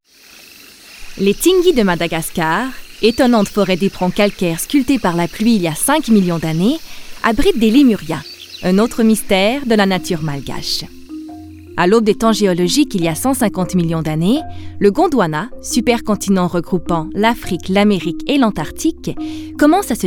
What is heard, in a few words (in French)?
narration